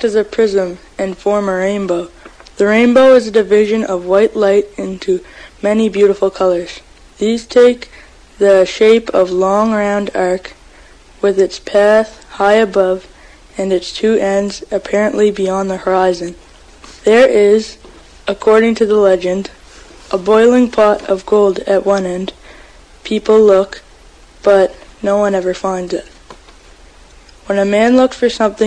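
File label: nova scotia, International Dialects of English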